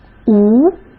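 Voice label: "无" Mandarin Chinese; chinese flashcards; hsk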